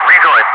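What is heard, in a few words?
"rejoin", English speech, Wingman; DCS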